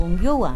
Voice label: Nawat palabras cielo mal tiempo; pipil

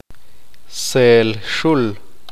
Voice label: "armadillo", qeqchi

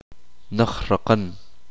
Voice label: uspanteko largo

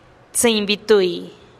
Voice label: "tsïinn bi tui" diez y seis, teotitlan

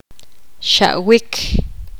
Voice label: poqomam vomitar

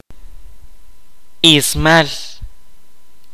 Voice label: pluma, kaqchikel

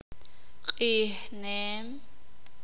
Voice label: "Adorar", Sakapulteko

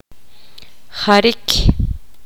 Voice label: poqomam recto

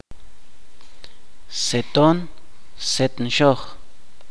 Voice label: "redondo circular", Chuj

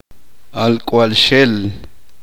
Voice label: "hijo de hombre", uspanteko

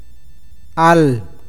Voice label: pesado que pesa, awakateko

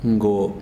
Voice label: Zapoteco huevo; miahuatec

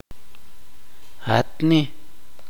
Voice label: "correcto", Chuj

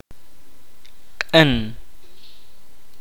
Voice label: "amarillo", kaqchikel

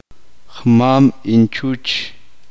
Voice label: uspanteko abuelo materno

